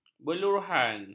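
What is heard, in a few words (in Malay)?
"berluruhan", Malay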